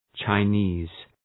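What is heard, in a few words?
"Chinese", English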